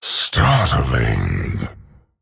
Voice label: Male Dragon Wow